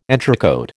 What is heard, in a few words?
"entrecôte", American